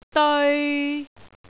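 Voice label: "deoi3", Cantonese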